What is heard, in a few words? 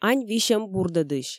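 Komi, female; ань висьӧм бурдӧдысь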